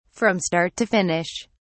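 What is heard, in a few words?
"from start to finish", British English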